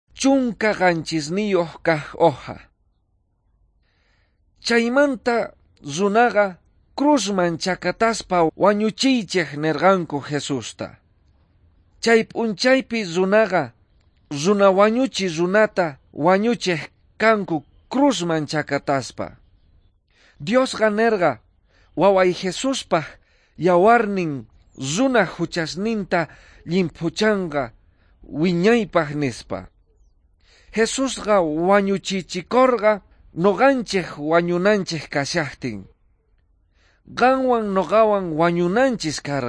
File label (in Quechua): quechua bolivian cochabamba; good news